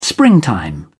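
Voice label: "springtime", English, British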